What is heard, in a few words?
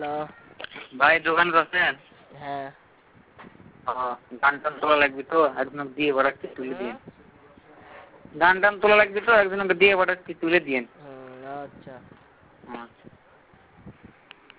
Auto Call Record